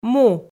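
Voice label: "му", Komi, female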